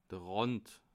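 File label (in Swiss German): "rand", Bas Rhin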